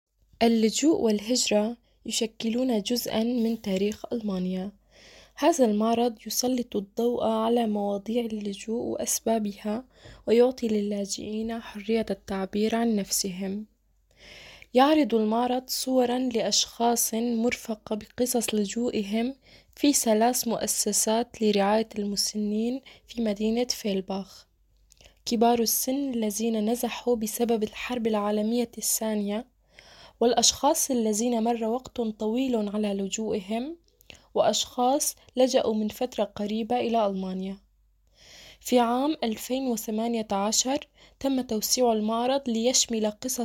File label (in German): textbeschreibung ausstellung arabisch